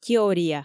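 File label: "теория", Komi, female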